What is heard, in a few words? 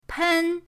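"pen", Mandarin pinyin, first tone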